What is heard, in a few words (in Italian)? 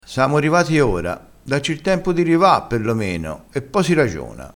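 "samo", vocabolario dialettale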